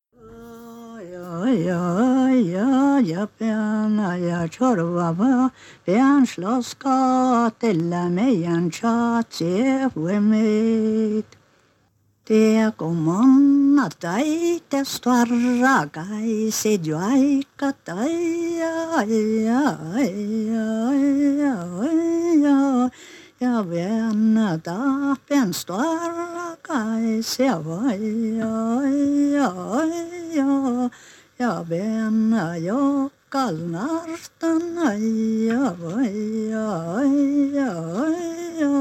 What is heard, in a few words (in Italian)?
Voce finlandia